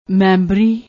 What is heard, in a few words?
"membari", Punjabi